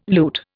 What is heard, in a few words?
"loot", Indian